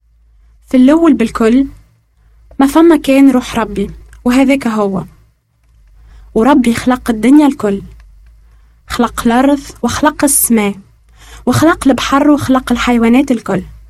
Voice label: creation tunisian, tunisian arabic